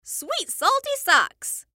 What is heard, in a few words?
Cartoon Voice Female; Cartoon Voices